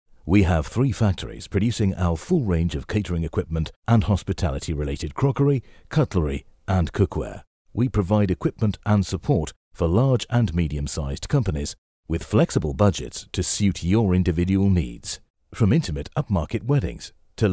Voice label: male british voice over narrative, english british